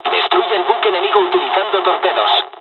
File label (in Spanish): torpedo attack, dialogs spanish; War Thunder